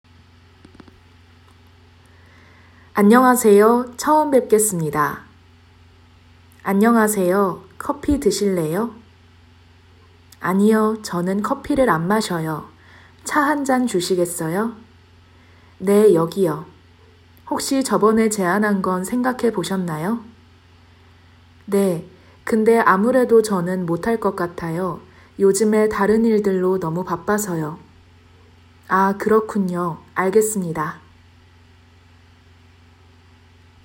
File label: conversation